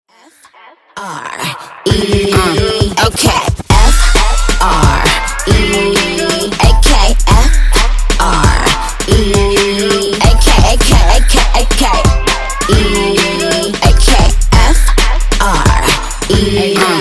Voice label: Acapella In Out 133Bpm